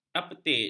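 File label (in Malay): "aparteid", Malay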